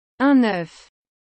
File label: Un oeuf french